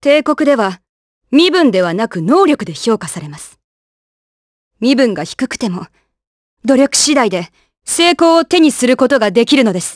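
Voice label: vox dialogue, voices, Japanese; Glenwys; kingsraid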